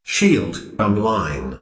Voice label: shield Online, Narrator Voice, Male AI; Narrator Voice Pack 2